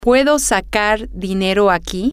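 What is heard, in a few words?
can I with draw money here, spanish, female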